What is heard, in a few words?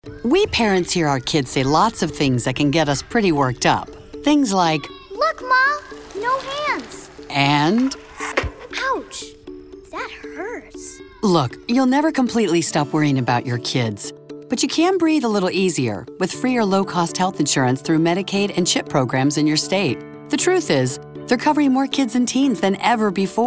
radio psa english